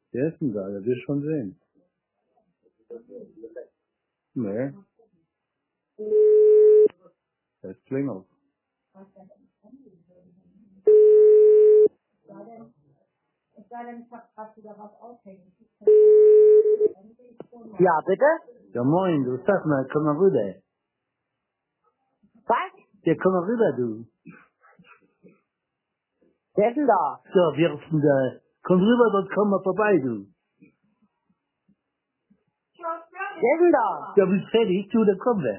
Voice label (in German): telefonat